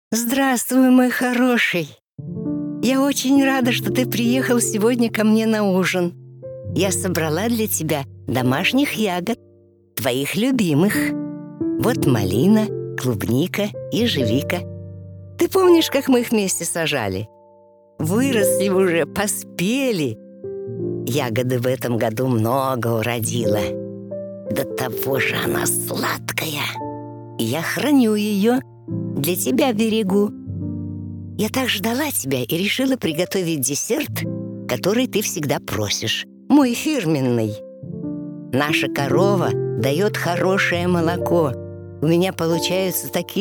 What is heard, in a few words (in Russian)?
babushka